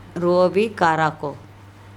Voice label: roabi karako the boats are coming to the shore, andamanese